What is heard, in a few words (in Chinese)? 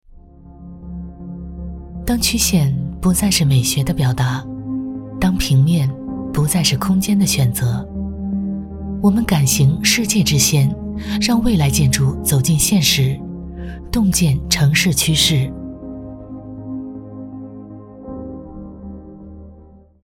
女 科技感; 未来建筑